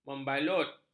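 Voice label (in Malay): "membalut", Malay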